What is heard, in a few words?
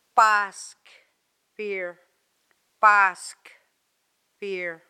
baasx fear, gitxsan alphabet